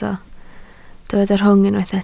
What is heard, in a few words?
"rhwng", Welsh